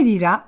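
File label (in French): "dà li", Corsican, Gravona (sud); Corse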